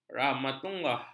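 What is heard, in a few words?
"rahmatullah", Malay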